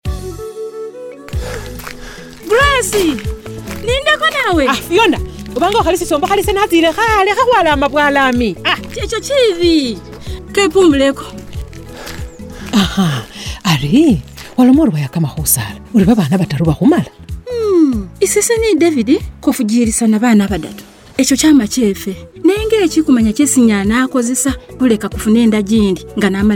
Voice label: IUD Radio Spot Lumasaba